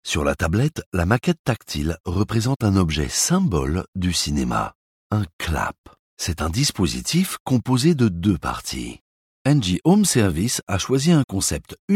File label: voix off e-learning